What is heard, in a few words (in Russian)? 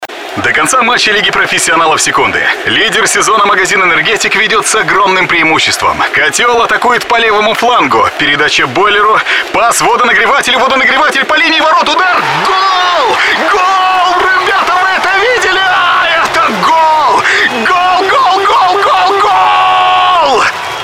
diktor Kommentator